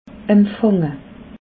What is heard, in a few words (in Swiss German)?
"empfange", alsace